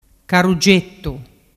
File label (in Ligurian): "caruggettu", dialettu